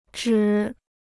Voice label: "指", Chinese

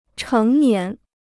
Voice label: "成年", Chinese

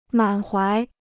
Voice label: "满怀", Chinese

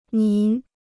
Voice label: "您", Chinese

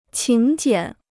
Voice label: "请柬", Chinese

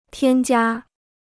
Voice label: "添加", Chinese

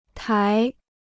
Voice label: "台", Chinese

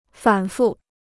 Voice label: "反复", Chinese

